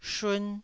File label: "shun1", taiwanese Syllables